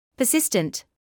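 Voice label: "persistent", British English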